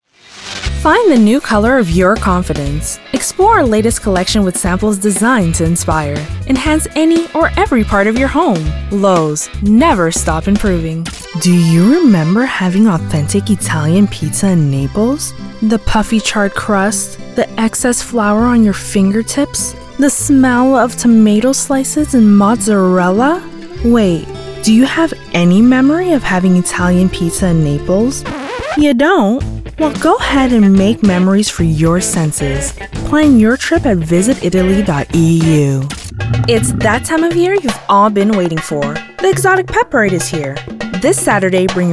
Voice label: Commercial